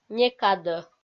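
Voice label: "некадӧ", Komi, female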